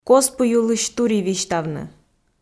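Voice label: "коз пу йылысь тури висьтавны", Komi, female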